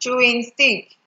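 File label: "chewing stick" Nigerian Pidgin, female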